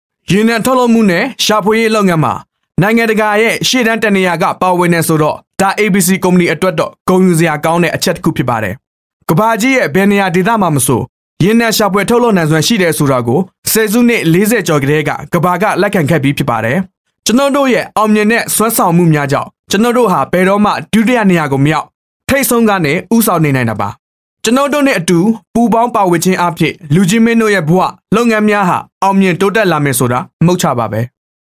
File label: Male Burmese Marketing